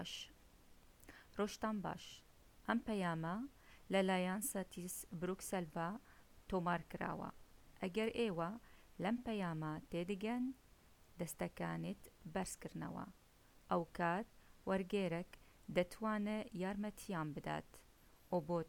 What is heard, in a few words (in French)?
Sorani